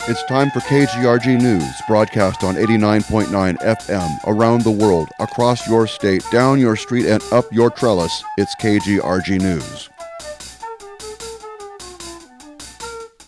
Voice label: News Break Vocal